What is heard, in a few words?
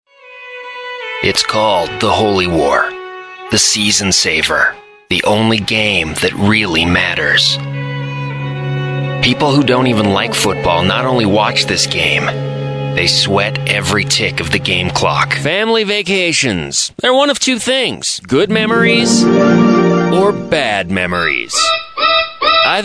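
commercial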